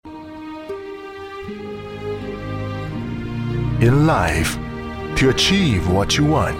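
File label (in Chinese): 男英文房地产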